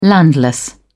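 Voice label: "landless", British English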